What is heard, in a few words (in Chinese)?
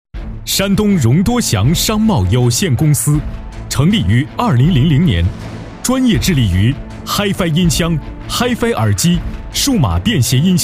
男 大气 企业宣传